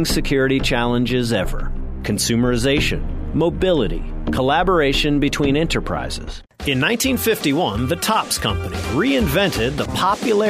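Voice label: male narration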